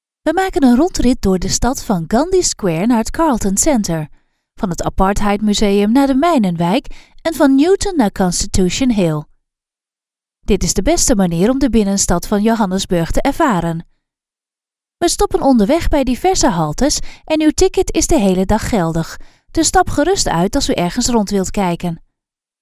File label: dutch others